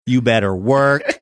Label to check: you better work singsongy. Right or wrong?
Right